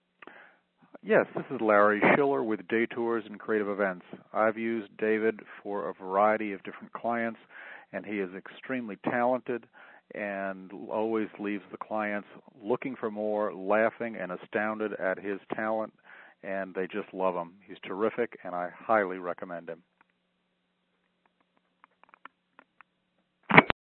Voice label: testimonial; magic; day tours and creative events; Minnesotamagician